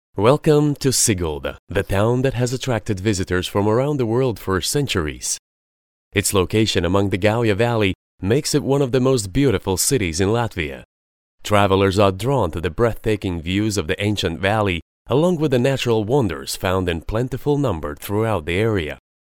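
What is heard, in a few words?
Russian English male narration; accent of russia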